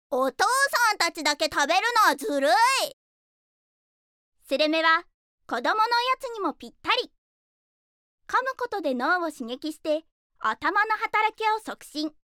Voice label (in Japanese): ナレーション